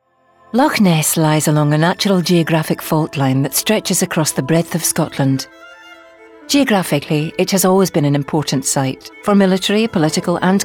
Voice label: Documentary